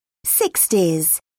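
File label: "sixties", British English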